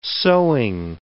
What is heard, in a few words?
"sewing", English